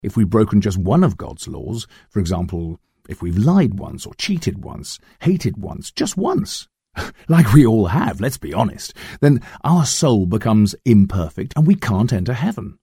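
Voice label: english british male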